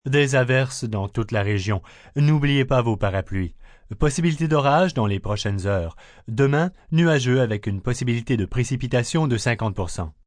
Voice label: Rain Showers, Broadcast, weather, male weather report in French, rain, cloudy tomrrow, news; human voice speaking; VoiceBox